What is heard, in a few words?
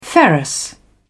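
"ferrous", British English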